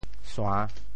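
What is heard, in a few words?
"sua~3", Teochew; 腺; 潮州; 白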